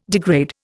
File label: "degrade", Indian